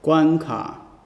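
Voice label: level, Basque; gcompris-data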